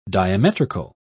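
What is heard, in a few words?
"diametrical", British English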